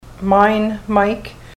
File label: mine, ontario